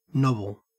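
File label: "nobble", Australian English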